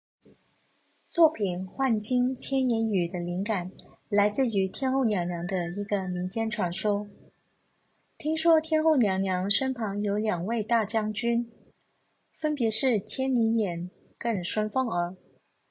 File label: mandarin; Prismatic rainbow mascot; 幻镜千眼鱼; 西贡海艺术节｜Sai Kung Hoi Arts Festival